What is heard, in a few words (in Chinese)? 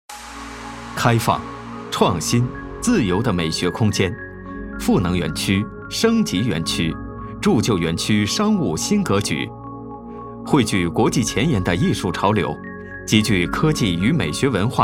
男 科技